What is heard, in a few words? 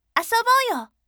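voice asobouyo bright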